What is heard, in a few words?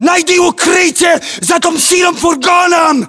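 order cover van blue, battlechatter, Russian; localized english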